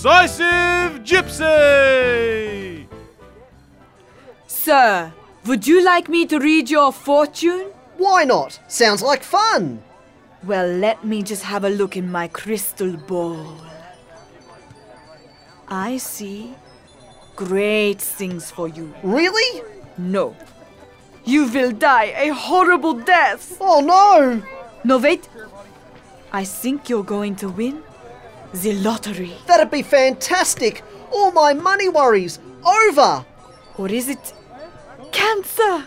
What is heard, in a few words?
Comedy Character